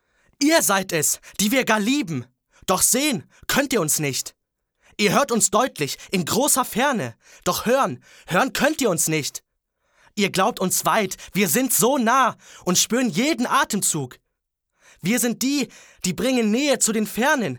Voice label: Aggressiv, Jungen

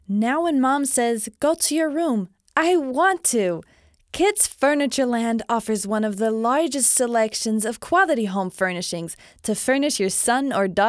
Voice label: maedchen; Furnitureland Kids

